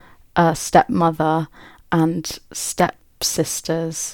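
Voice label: Spontaneous Speech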